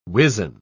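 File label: "wizen", English, British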